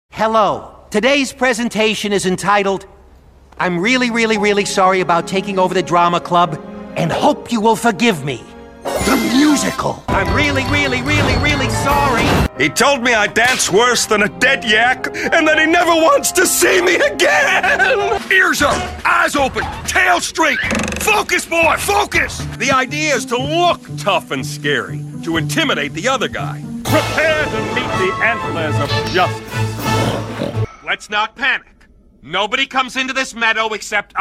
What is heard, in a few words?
men animation